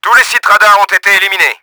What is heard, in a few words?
all radar down, french; Battle Engine Aquila; XBOX